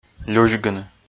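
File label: "люзьгыны", Komi, male; kpv audio dict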